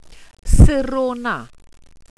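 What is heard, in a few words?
"sirona", welsh pronunciations; Wales/welsh names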